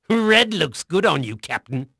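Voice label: English male; Pirates Of The Caribbean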